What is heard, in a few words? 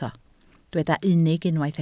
"unig", Welsh